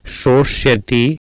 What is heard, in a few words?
"SroSyati", Sanskrit